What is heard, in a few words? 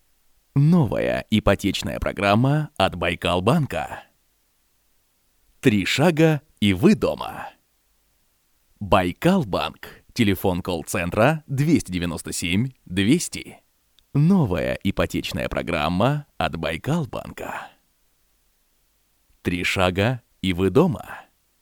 ukrainian voiceover locutor ucraniano, Ukrainian male voiceover